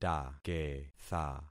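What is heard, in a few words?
"taketha", concatenated syllables; Affixing experiment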